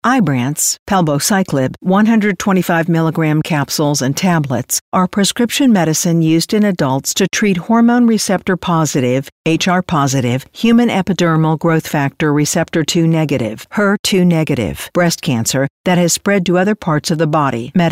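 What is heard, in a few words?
Medical, voice-over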